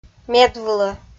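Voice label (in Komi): "медвылӧ", Komi, female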